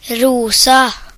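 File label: pink, Serbian; gcompris-data; colors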